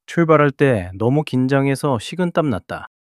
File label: fluster